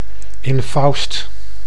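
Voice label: "infaust", Dutch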